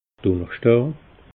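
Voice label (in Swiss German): "dunnerschdaa", Bas Rhin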